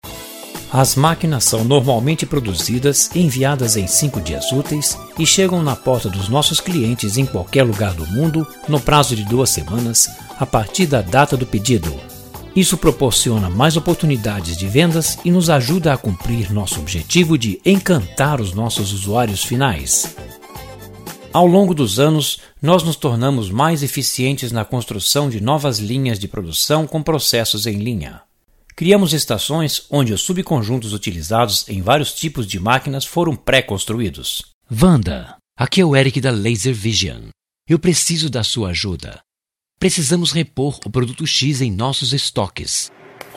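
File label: Brazilian Portuguese general; GoLocalise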